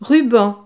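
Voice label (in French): "ruban", French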